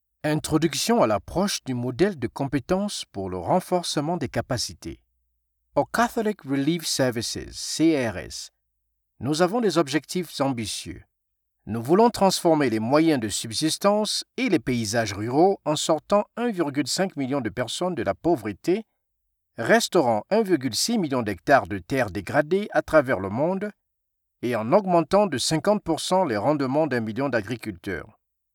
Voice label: french corporate videos